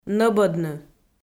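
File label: "нӧбӧдны" Komi-Zyrian, female; kpv audio dict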